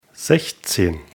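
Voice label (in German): "sechzehn", German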